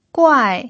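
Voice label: "guai" fourth tone, pinyin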